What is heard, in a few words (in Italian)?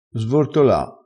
"svortola", crespinese